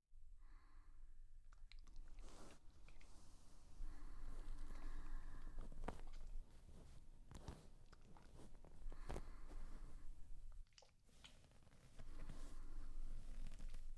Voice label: ちんこマッサージ, ASMR; BGMなし; ゾクッゾクッ!健全!ちんこマッサージ店; フォーリーサウンド

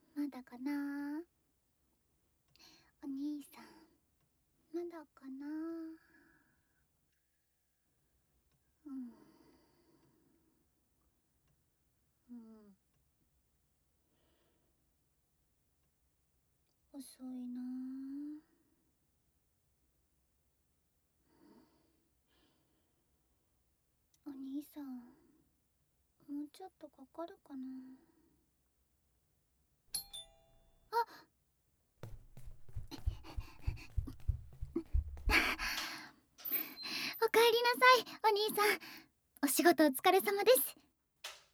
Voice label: おかえり耳舐めマッサージ、囁きいっぱい, ASMR; しこしこ手コキ。; 【耳舐め】じゅぽ↑じゅぽ↓ご奉仕耳舐めJK拾った～あなたに依存する薄幸家出娘～

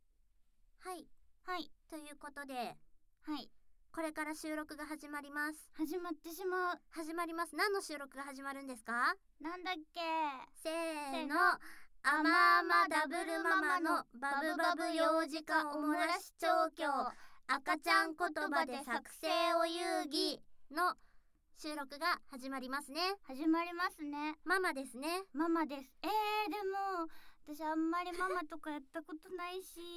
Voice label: 収録前フリートーク; 【Wママ】

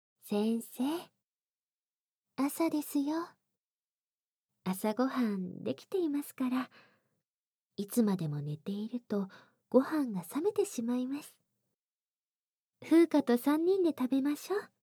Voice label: 目覚ましボイス（らぶらぶ版）; リッカ; KU100; 巨乳ドスケベ錬金術師とその母親がチンカス汚ちんぽに媚び媚びご奉仕してくれるお話♪

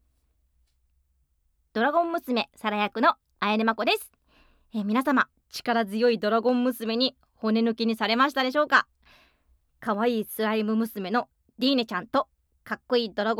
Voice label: フリートーク; モンスター娘とあまあまorハードH～囁き耳舐め/14人増殖/チ〇ポ分裂/咆哮オホ声火噴きSEX～

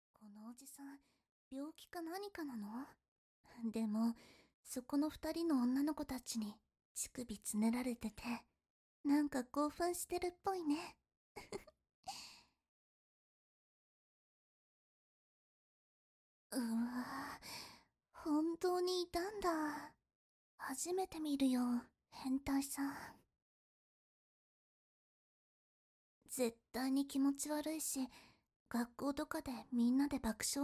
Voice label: モブボイス; リリ; KU100ASMR

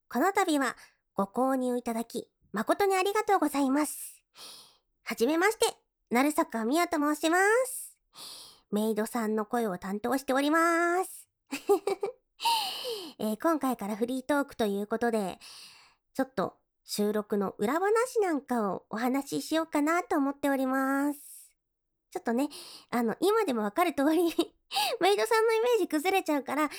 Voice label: フリートーク; ダウナー系メイドの便器オナサポ

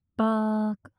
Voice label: バーカ・強く・近・左; マゾオスのあなたに敗北射精の快楽を叩き込む音声

